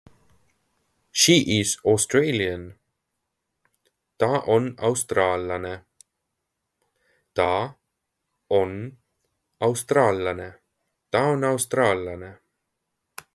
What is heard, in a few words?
She is Australian, Estonian; the talking estonian phrasebook